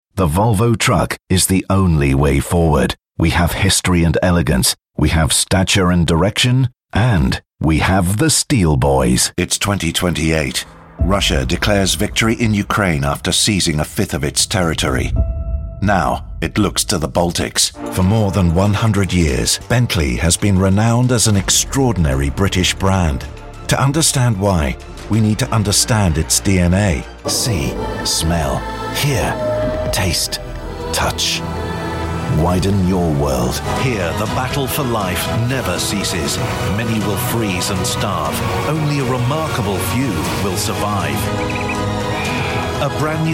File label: deeper and grittier